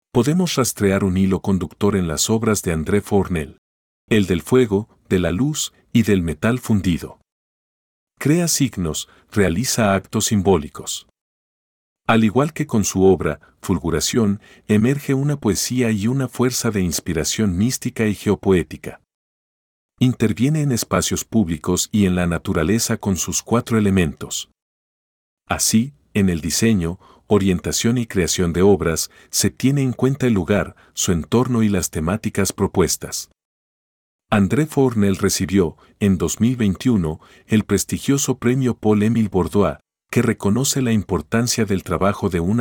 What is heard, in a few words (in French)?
espagnol